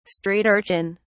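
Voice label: "street-urchin", English, British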